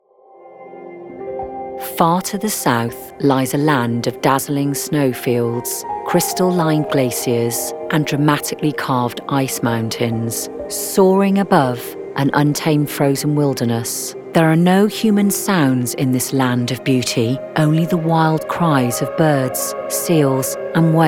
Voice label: female English Welsh English documentary